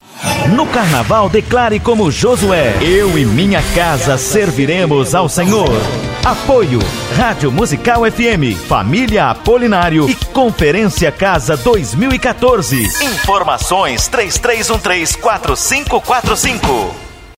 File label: spot; casa 2014 teaser